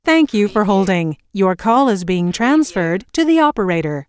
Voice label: US female phone prompt Thank you for holding - Your call is being transferred to the opera; Thank you for holding - Your call is being transferred to the operator; lynne-publishing